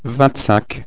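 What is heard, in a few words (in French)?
"vingt cinq", French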